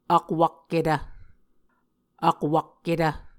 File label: "akwakketv", muscogee; Mvskoke Words with Repeated Consonants; Onvkv Ohhvyayices; A Dictionary of Creek/Muskogee; SIL International